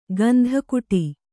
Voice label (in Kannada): "ಗಂಧಕುಟಿ", Kannada